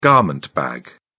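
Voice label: "garment bag", British English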